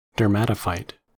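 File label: "dermatophyte", American English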